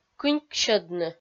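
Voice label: "кыньксьӧдны", Komi, female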